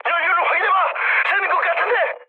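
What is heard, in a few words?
Korean tank damaged mood high; speech; War Thunder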